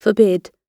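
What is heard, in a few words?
"forbid", British English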